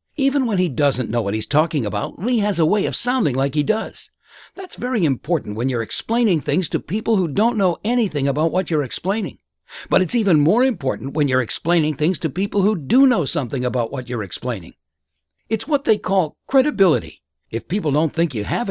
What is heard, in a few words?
explainer